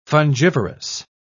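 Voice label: "fungivorous", British English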